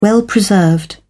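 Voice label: "well preserved", British English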